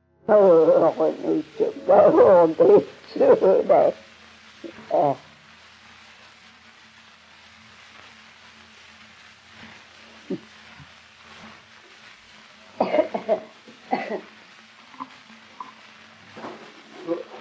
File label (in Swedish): Strövelstorp SK, dialekter; Folkminnen